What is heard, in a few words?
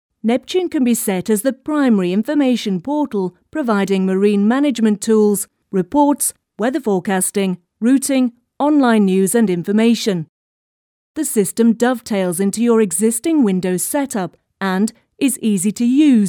Corporate Commercials